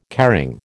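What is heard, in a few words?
"caring", American English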